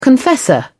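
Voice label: "confessor", British English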